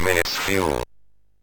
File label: MOS6581R3, Electronic Speech Systems